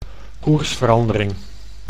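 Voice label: "koersverandering", Dutch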